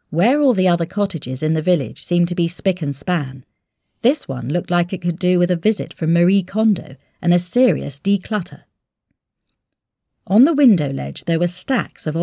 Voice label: Home Studio Read

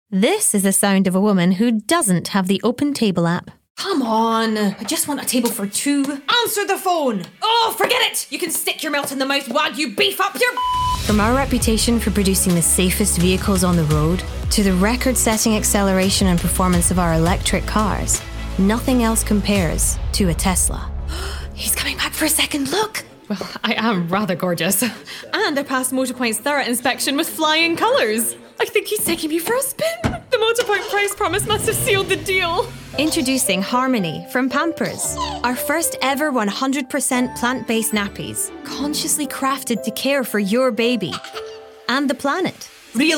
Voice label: Commercial VO

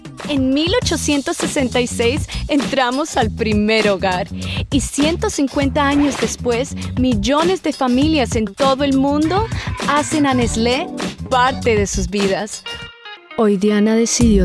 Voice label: Spanish Latin

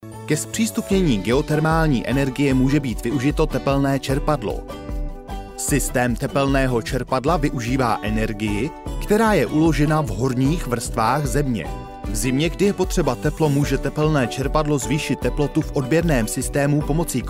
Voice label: Czech; Eastern European, Male, Home Studio, 30s-40s

